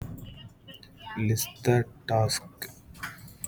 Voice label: voice note